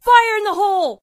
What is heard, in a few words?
"fire in the hole", Female, war; kenney